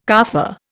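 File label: "carfare", Indian English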